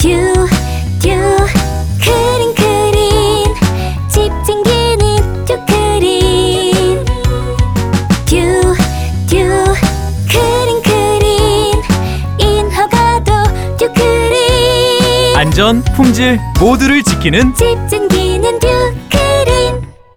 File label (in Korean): CM송 라디오광고; 듀크린